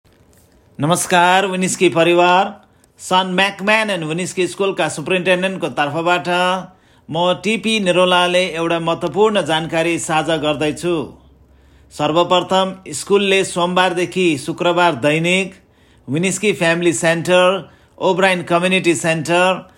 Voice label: nepali